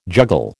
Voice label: "juggle", American

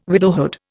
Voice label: "widowhood", Indian

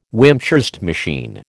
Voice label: "Wimshurst machine", American

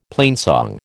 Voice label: "plainsong", American

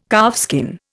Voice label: "calfskin", Indian

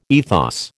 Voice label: "ethos", American

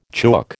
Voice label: "chook", American

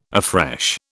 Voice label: "afresh", British

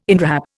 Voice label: "inwrap", Indian

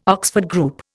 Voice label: "Oxford Group", Indian

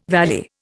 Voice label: "valley", Indian

